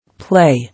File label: "Play", English